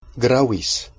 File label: "gravis", Latin